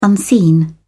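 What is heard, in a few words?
"unseen", British English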